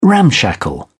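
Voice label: "ramshackle", British English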